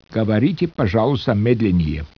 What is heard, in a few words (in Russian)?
SPEECH, RUSSIAN